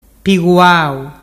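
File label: "piguau", Ligurian dialect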